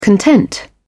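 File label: "content", British English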